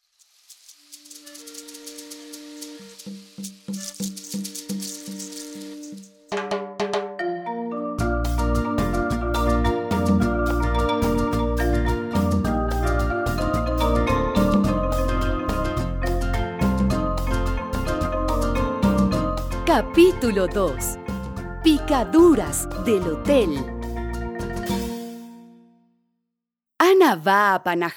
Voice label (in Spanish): Audiobook capitulo; Pobrecita Ana